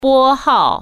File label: "dial", Chinese